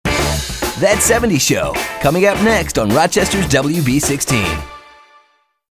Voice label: imaging